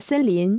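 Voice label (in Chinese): "森林" Chinese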